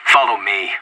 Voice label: Anarchist Radio Follow me